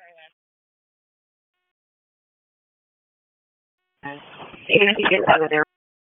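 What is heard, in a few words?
Police Northeast District Dispatch, Raleigh; wake; p25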